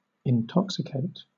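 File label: "intoxicate", British English; pronunciations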